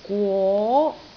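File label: "gwo2", Cantonese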